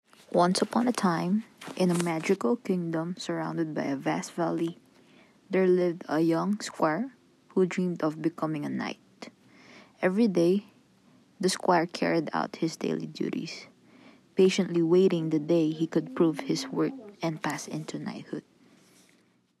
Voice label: VO Asian Filipino